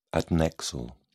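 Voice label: "adnexal", British English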